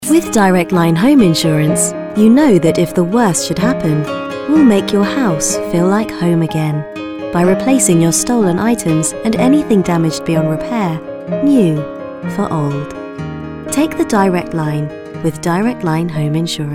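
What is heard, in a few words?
English British, female, corporate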